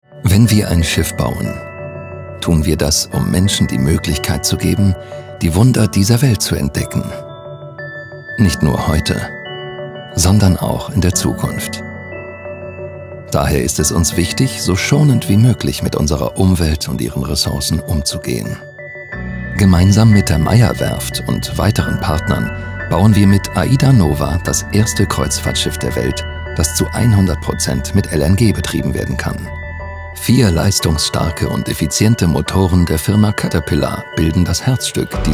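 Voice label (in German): Kommentar Sanft; Fremdtake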